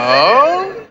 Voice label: LoFi Words, LoFi Vocals

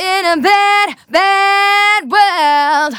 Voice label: Phrase Female; Vengeance Samples; Phrases

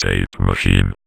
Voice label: Vocoder Words; Vengeance Samples

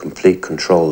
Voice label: LoFi Phrases, LoFi Vocals; Vengeance Samples

